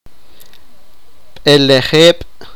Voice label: sipakapense; nine